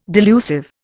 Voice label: "delusive", Indian